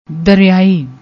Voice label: "dareai", Punjabi; ਦਰਿਆਈ

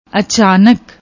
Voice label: "achanak", Punjabi; ਅਚਾਨਕ

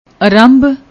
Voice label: "aramb", Punjabi

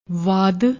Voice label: "waad", Punjabi; ਵਾਦ

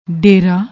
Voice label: "dera", Punjabi

